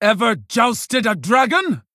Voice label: Female Tauren Play